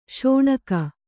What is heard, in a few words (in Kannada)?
"ಸೀನ್ಕೆ", Kannada